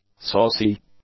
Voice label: "saucy", English; adjectives

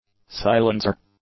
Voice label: "silencer", English; nouns

